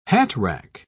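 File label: "hatrack", English, uk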